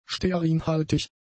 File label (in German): "stearinhaltig", German; adjectives